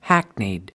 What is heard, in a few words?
"hackneyed", us